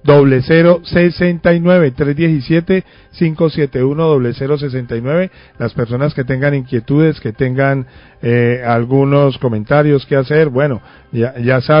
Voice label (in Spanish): Locutor pregunta a oyentes si veredas tienen energía; Alerta Tuluá; 629am